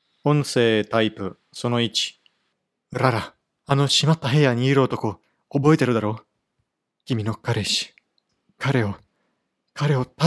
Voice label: Japanese Voice Samples

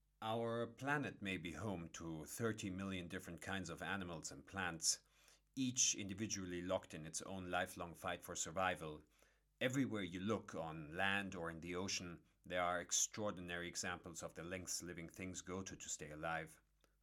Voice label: spoken accentless english; Attenborough Text

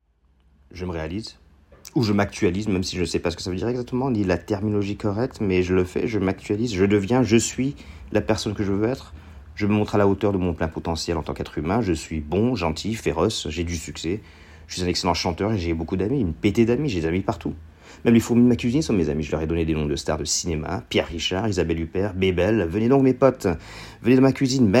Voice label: French

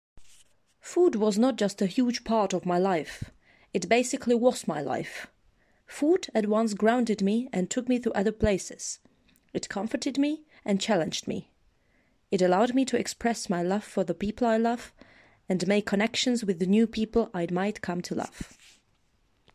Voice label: voice sample uk eng

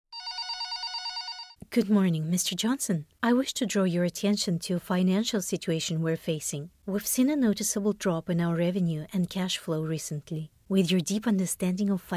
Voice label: Business Voice over

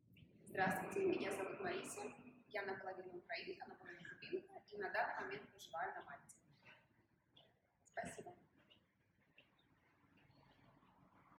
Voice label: Slate in russian

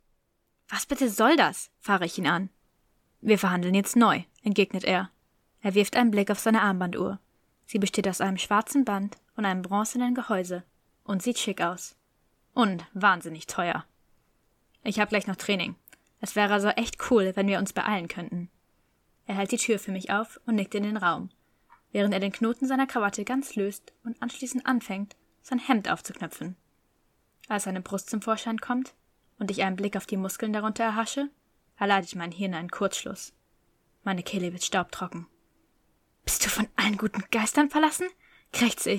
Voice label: German audiobook style

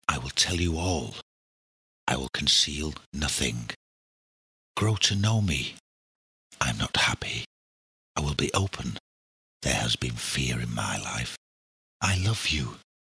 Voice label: Intimate Voice

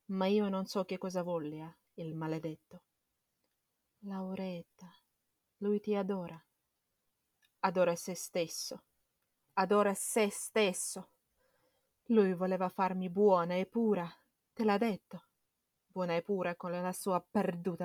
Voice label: Italiano monologue

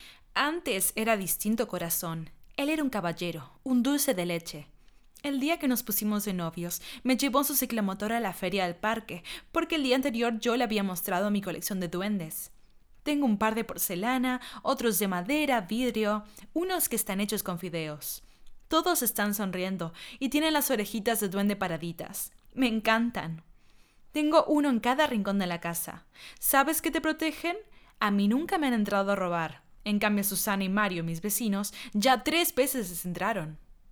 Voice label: espanol neutro voice

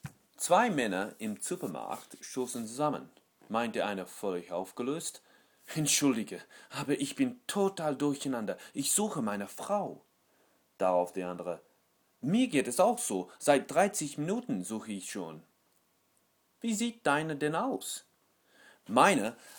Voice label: GERMAN voice clip

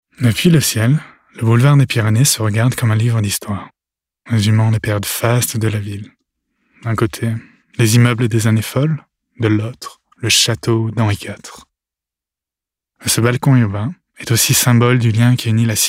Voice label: Documentary French

